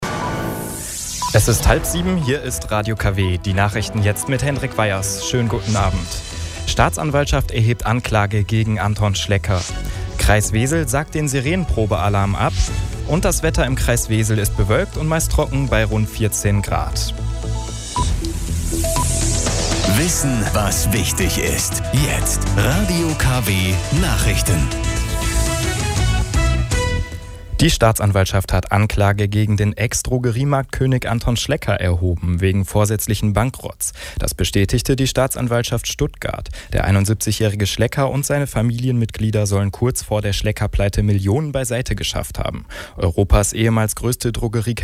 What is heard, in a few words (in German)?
nachrichtensprecher